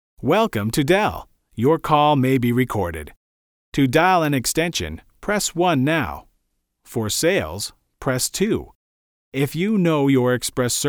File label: telephony